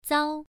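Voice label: "zao1", Chinese pronunciation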